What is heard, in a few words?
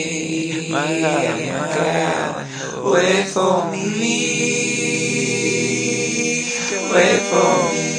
120 wait for me male vox; VOCAL OR VOXY LOOPS